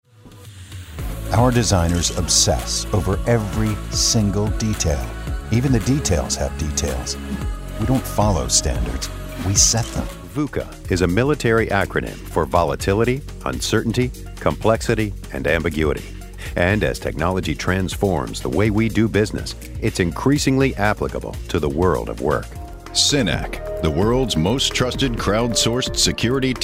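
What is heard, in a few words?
explainer, voice actor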